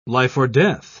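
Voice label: "life-or-death", British English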